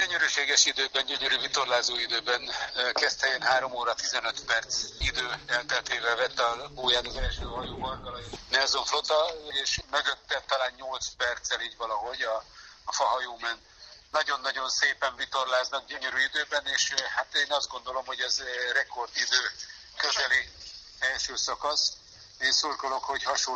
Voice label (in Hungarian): bója 1-2 bójakerülés telefon audio; Fa Nándor